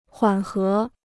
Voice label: "缓和", Chinese